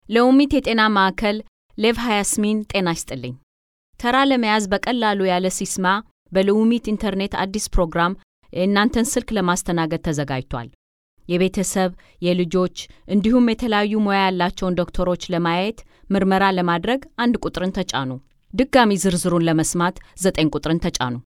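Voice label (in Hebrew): Amharic, קריינות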